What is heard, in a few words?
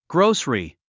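"grocery", English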